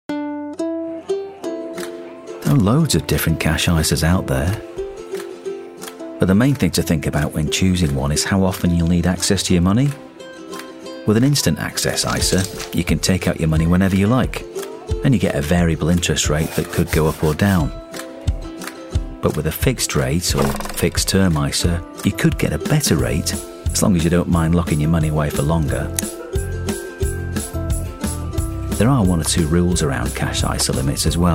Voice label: British Voiceover Explainer Video; NATIONWIDE CASH ISA